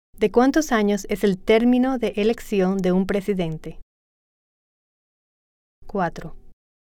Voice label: Spanish